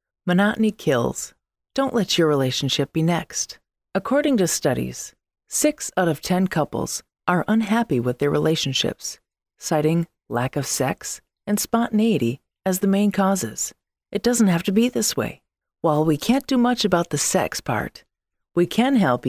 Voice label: Audiobook